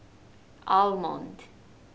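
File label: "almond", Japanese female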